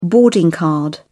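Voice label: "boarding card", British English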